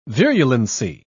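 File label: "virulency", English, British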